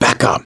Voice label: backup quiet, voice; gambler